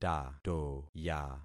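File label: "tatoya", concatenated syllables; Affixing experiment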